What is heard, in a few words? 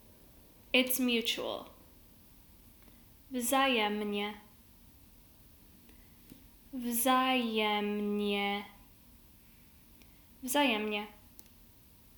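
Polish It's mutual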